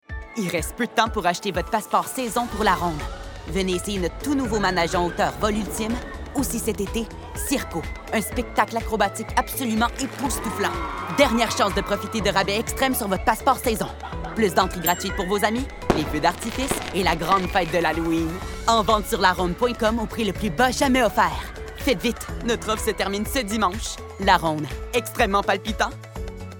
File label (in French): voix publicitaires